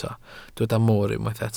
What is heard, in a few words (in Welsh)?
"moor", Welsh